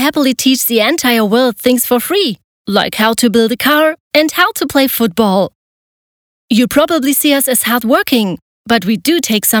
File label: English with German accent